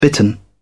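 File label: "bitten", British English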